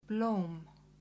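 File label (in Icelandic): "blóm", Icelandic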